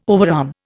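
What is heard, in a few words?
"overarm", Indian English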